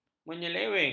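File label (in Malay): "menyeleweng", Malay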